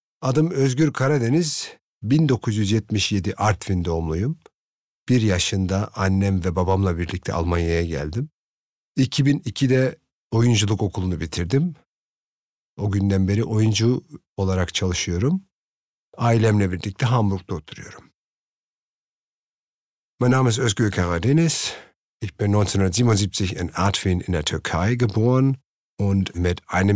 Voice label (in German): tuerkisch und deutsch